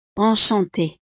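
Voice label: "enchantee", French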